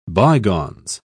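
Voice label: "bygones", English, British English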